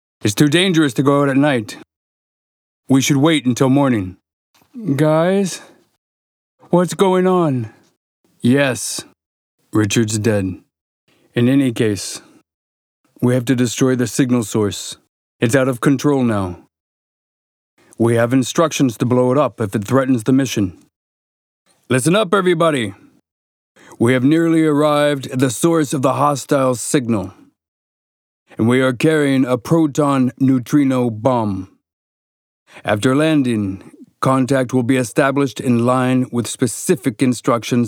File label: movie US